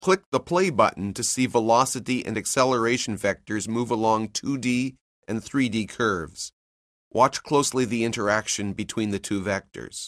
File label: narration; CALCULUS 7E; cengage